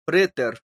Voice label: "preter", esperanto

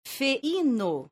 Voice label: "feino", esperanto; leciono1

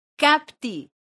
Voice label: "kapti", esperanto

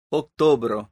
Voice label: "oktobro", esperanto; leciono1

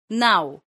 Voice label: "naŭ", esperanto

